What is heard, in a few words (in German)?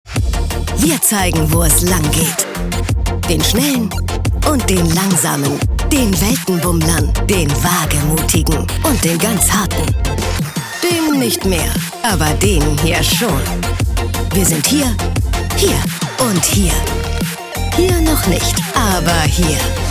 Recruitingfilm; SWARCO